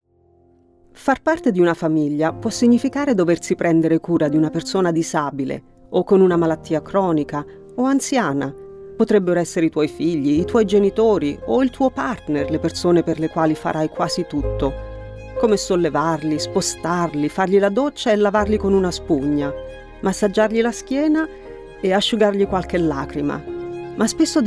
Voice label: Italian; Carer Gateway